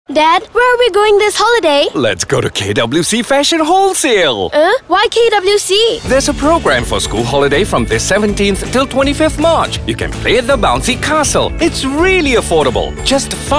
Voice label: eng; KWC BOUNCY CASTLE